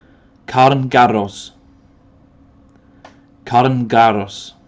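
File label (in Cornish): "Karn Garros", Cornish Place Names Pronunciation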